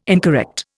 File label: "incorrect", Indian